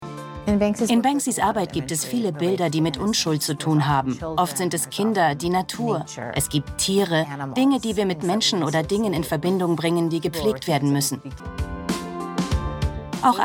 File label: Voiceover; Banksy